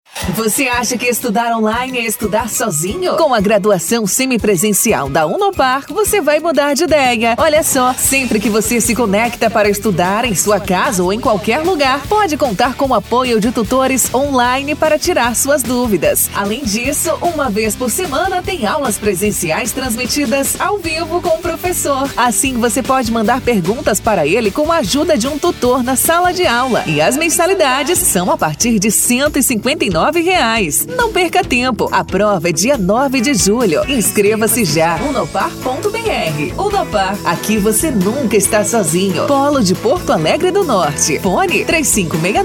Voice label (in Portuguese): Locutora, Publicidade e Propaganda; VOXMIDIA Publicidade e Propaganda